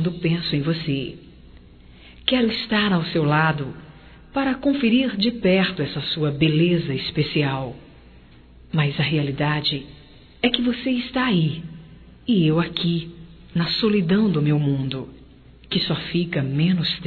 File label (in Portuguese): BOA NOITE ROMâNTICA; Bom dia, tarde e noite; Telemensagens 2017